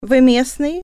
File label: are you from around here male, russian